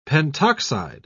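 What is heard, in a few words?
"pentoxide", British English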